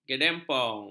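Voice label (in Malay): "gedempong", Malay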